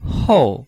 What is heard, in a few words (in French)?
"hou4", chinois